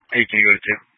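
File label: Police Dispatch, Scanner audio; Police 1 Dispatch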